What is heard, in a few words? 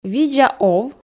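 видза ов, Komi, female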